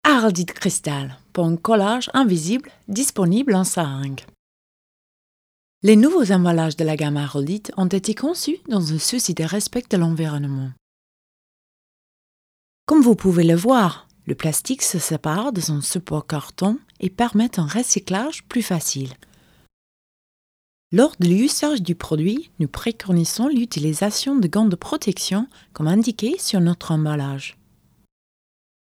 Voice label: French